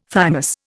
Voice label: "thymus", Indian